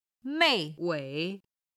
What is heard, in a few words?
"mei4 wei3", Chinese